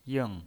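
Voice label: "ying" Mandarin Chinese pinyin voice, fourth tone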